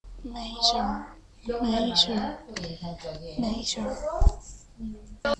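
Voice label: "major", spoken word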